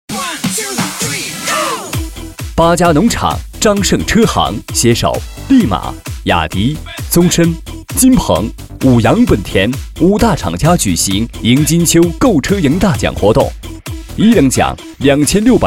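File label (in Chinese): 年促销干音; 年促销样音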